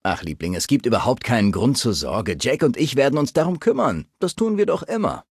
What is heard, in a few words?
Male adult default